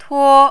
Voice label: "tuo1", Mandarin